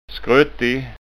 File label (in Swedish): "skruttig", Skånska